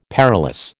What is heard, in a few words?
"perilous", American